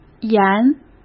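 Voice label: "严", Mandarin Chinese; chinese-flashcards; hsk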